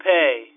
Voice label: "pay" phone, phonemes

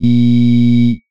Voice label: male "I"

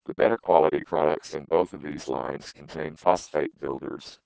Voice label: female speech to male

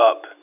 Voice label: "up" phone, phonemes

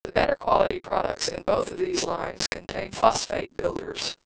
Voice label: female speech stylized

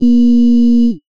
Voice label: "I", female, speech

